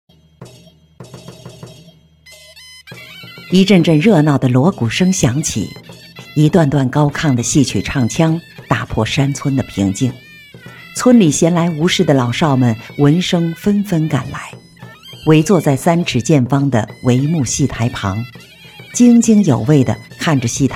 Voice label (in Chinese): 布袋戏配音